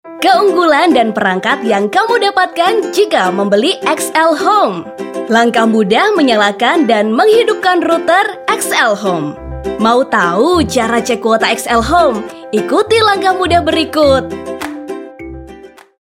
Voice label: INDO CASUAL